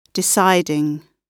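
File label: "deciding", British English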